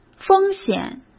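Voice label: "风险", Mandarin Chinese; chinese-flashcards; hsk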